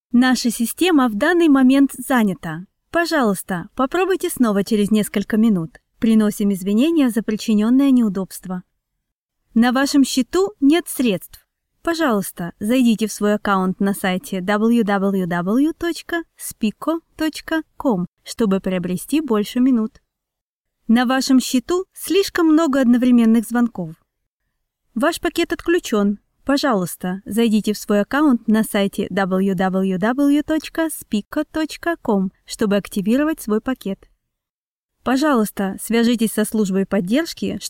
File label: Russian IVR; Spikko